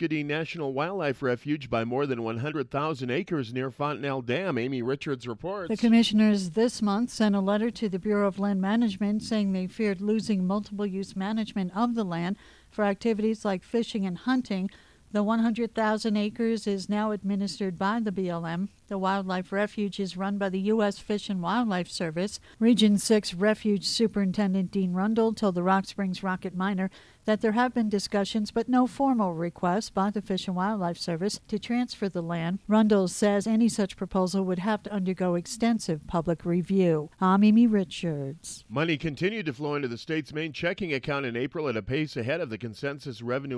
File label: wyoming radio news